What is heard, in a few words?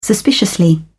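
"suspiciously", British English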